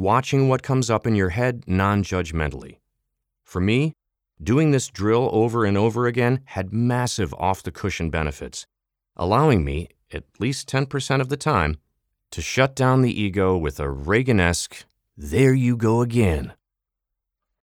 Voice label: Meditation